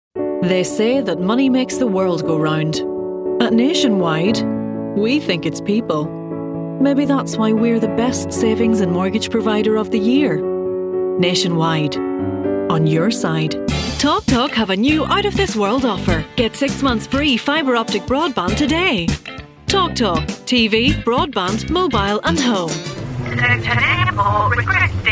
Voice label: corporate

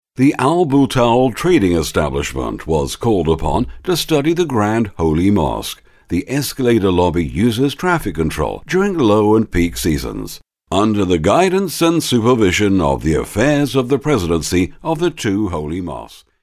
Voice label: mid atlantic

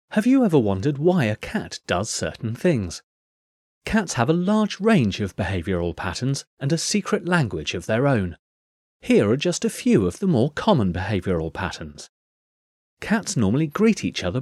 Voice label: narration

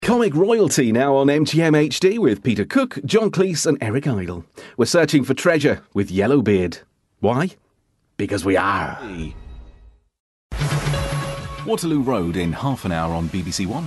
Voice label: continuity

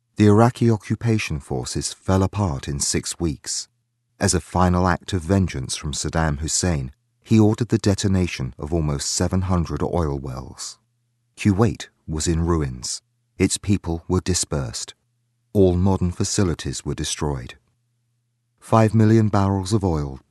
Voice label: documentary

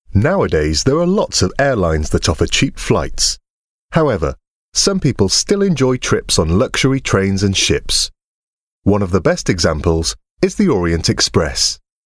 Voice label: narration

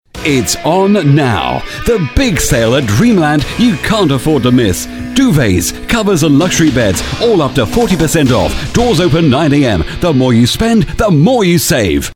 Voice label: hard sell

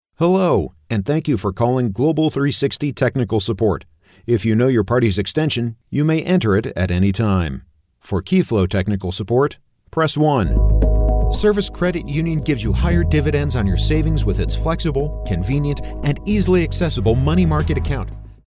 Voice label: telephone

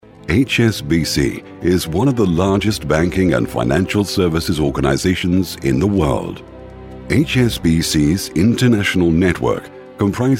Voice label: corporate

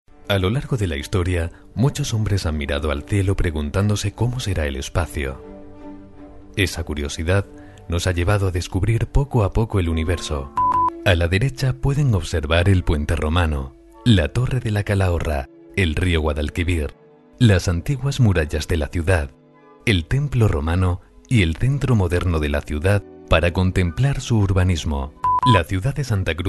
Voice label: documentary, voice

